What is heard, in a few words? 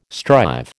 "strive", American